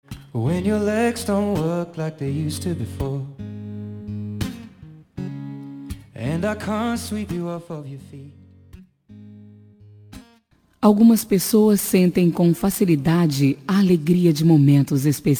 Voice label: Voz Feminina, Telemensagem; Telemensagem de Aniversário Romântico

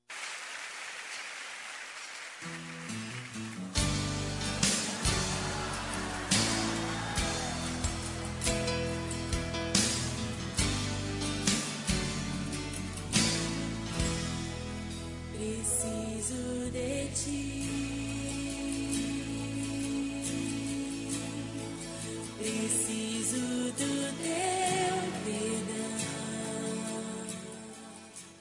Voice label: mãe gospel, Aniversário de Mãe Gospel – Voz Masculina; Telemensagens para todas as ocasiões

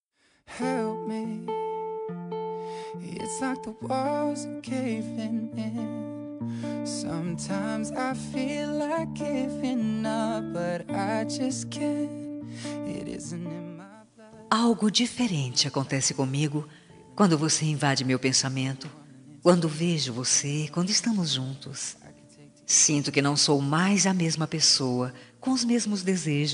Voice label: romântica, Telemensagem Romântica – Voz Feminina

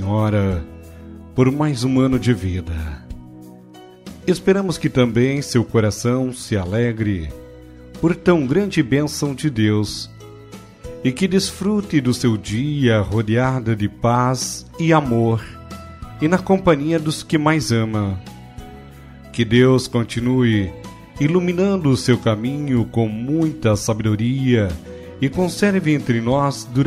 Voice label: pastora masc, Aniversário de Pastora – Voz Masculina; Telemensagens para todas as ocasiões

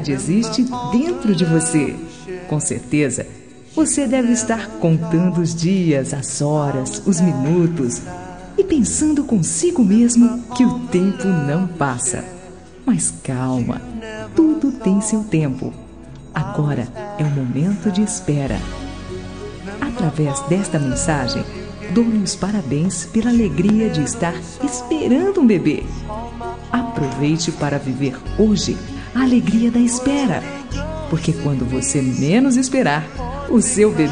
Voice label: futuro papai fem, Voz Feminina; Telemensagem para Gestante

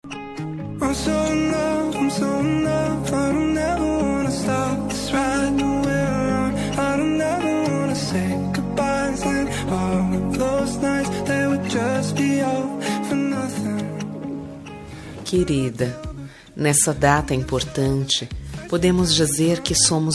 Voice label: dia dos namorados lgbt, voz feminina; para namorada

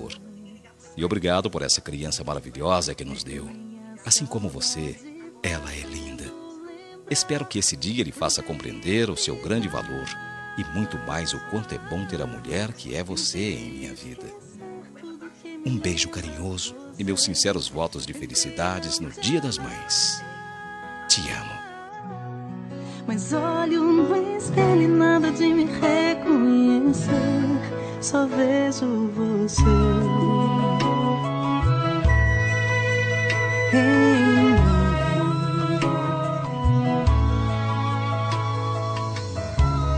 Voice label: dia das maes para esposa voz masculina com 1 filho

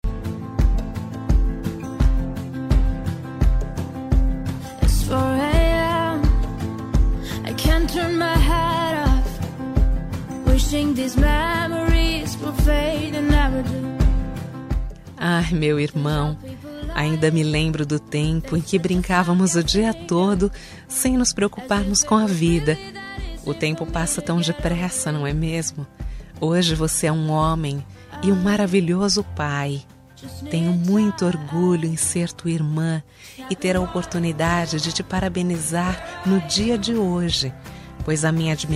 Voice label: irmao fem, Voz Feminina; dia dos pais para irmao

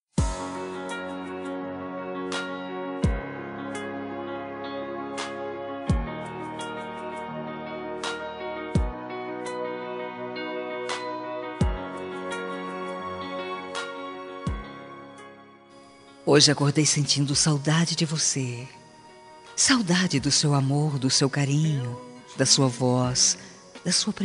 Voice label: romântica saudades, Telemensagem Romântica Distante – Voz Feminina

